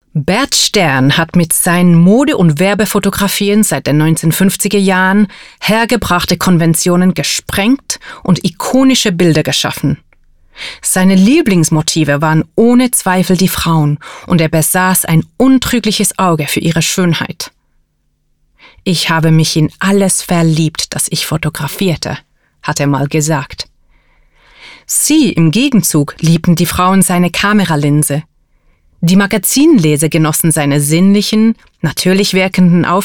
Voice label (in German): DEUTSCH EXTROVERT